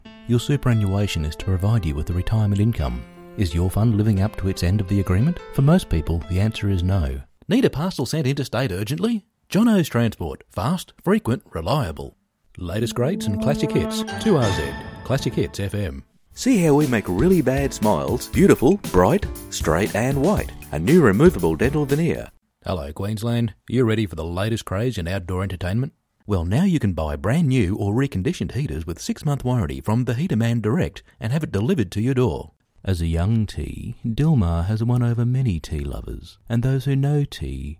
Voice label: VO music